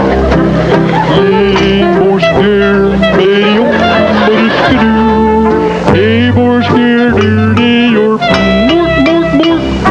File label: swedish chef